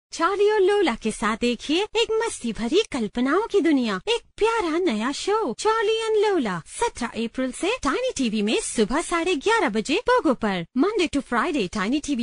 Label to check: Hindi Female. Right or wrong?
Right